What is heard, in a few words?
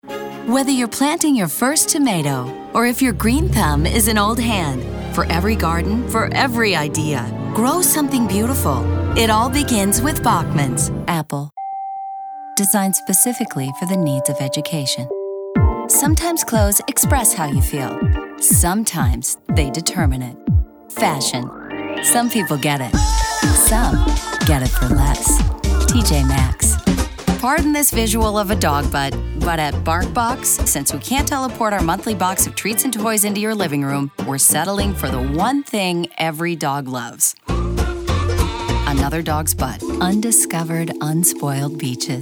Female Commercial